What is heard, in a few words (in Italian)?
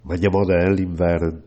bergamasco